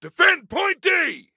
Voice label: DEFEND, Male; T2 Male 02